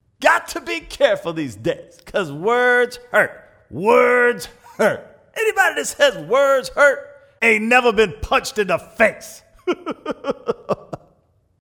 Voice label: Impression; Chris Rock